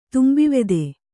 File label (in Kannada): "ತುಂಬಿವೆದೆ", Kannada